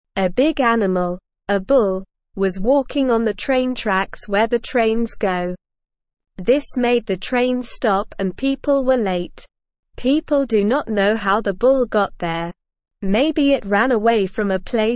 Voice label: Beginner SLOW Reading, English; English Newsroom

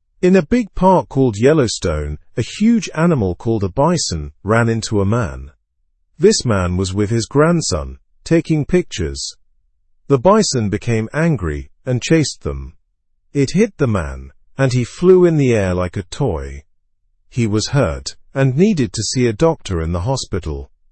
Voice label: learn english through news beginner normal; bison hits man in yellowstone

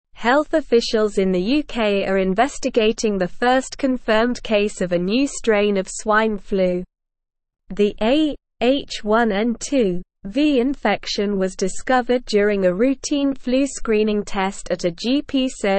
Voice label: English Newsroom Advanced SLOW Reading; UK Detects First Case of New Swine Flu Strain

